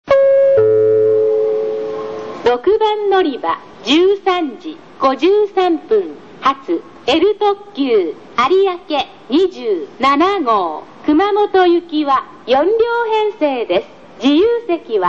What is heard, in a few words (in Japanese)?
案内放送 Ｌ特急有明・熊本; 小倉駅; ６番のりば